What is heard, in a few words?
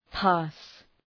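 "pass", English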